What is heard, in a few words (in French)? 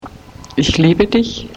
allemand